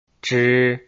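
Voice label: "zhi", Chinese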